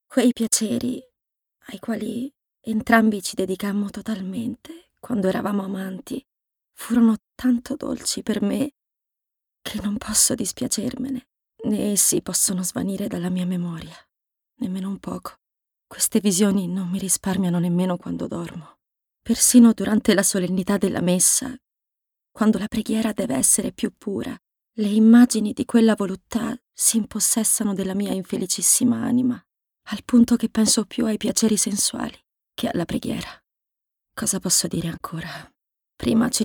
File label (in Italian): Narrazione, ITALIAN FEMALE; narration